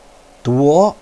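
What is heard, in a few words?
"duo", Mandarin Chinese, second tone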